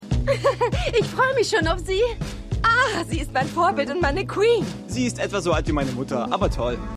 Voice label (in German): Postende Studentin Student; Everything's Trash